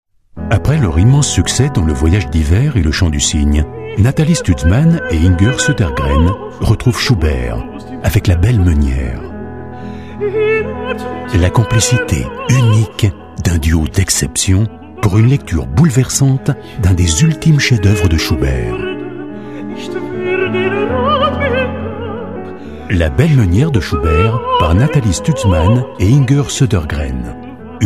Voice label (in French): pub radio; cd classique; label Calliope